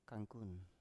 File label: "kankun", Biak